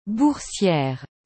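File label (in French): "boursiere", French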